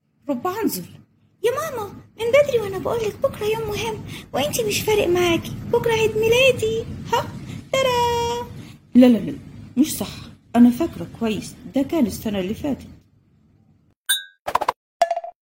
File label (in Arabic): دوبلاج; voice over